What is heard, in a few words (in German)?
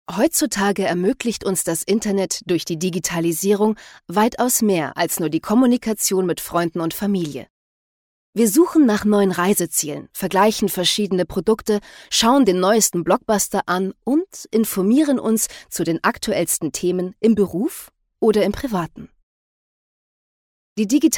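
Erklärfilm Erwachsen kurz; Boscherklaerfilm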